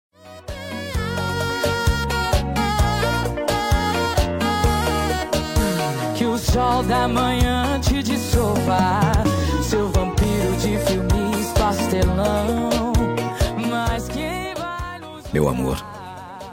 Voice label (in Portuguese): dia dos namorados distante, voz masculina